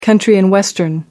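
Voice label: "country and western", British English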